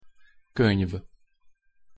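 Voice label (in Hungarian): "könyv", Hungarian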